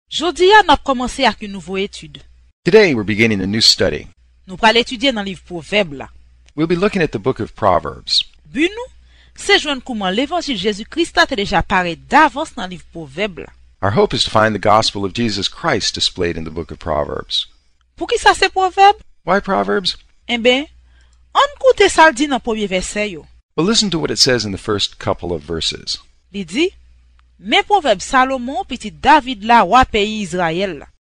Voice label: creole english, haitian creole; hat haitian creole english audio bible; a proverb a day

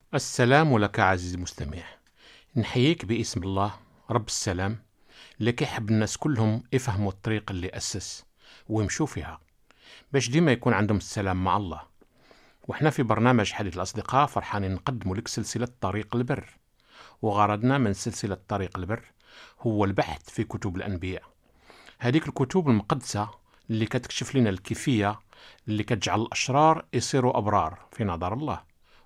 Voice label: way of righteousness arabic morrocan; ary arabic moroccan spoken audio bible

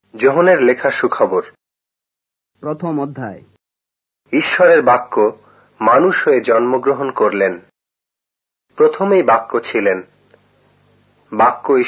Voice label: bengali; new testament ben bengali audio bible

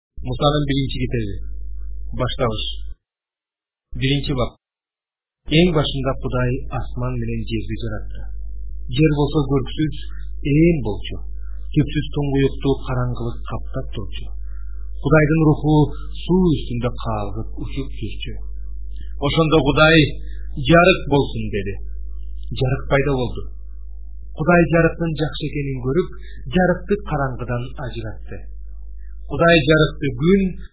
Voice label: kirghiz, audio bible; kir kyrgyz kirghiz

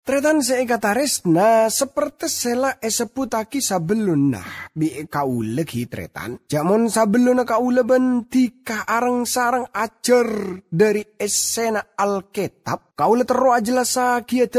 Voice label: madurese, thru the bible; mad madura audio bible

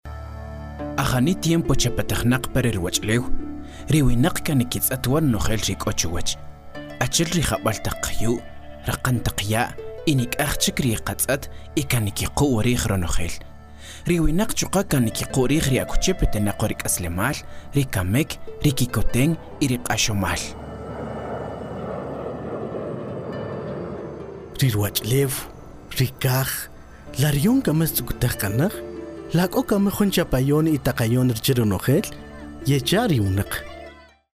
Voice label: mayan, voice only version; cak kaqchikel audio bible; the hope

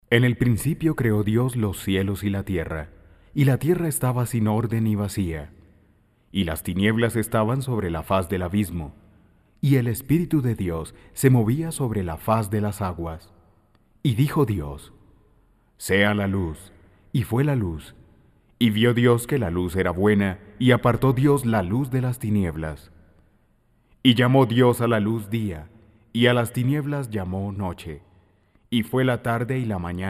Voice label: spanish, audio bible

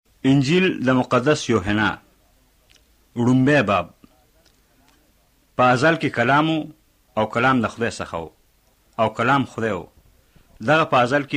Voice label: nt pashtu, new testament pashto northern; pbu pashto northern audio bible

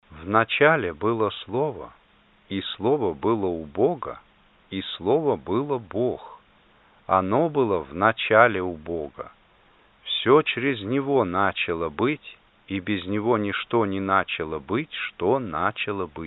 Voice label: russian, new testament russian audio bible

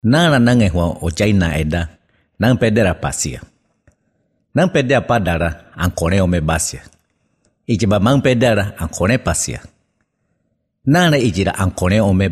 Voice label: nt embera, new testament northern embera; emp northern embera audio bible

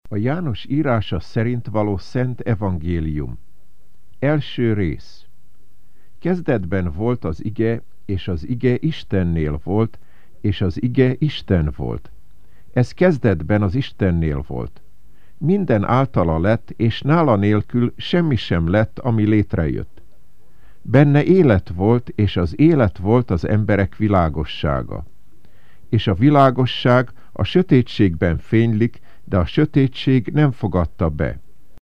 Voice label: hungarian, new testament, audio bible

